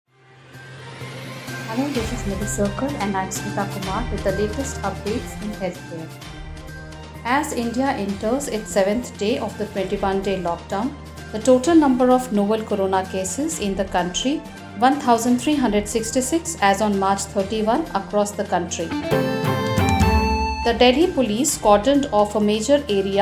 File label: english news